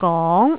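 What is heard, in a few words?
"gong", Cantonese, tone 2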